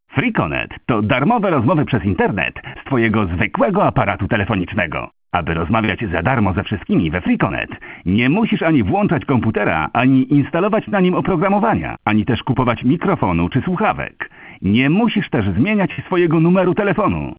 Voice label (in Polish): reklama (Numer do testu połączenia)